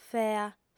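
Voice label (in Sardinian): "fea", female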